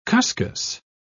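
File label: "cuscus", British English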